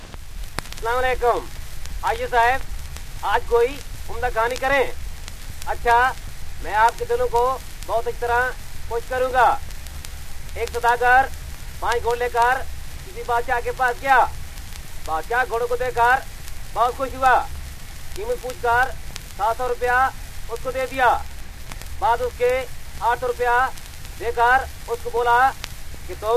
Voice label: Punjabi